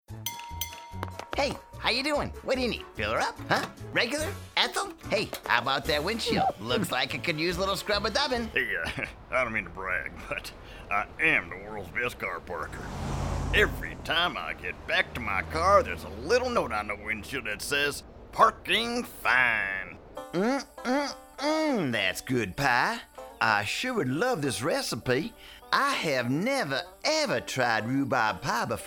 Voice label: Animation, American Voice Over